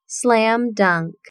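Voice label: "slam dunk", English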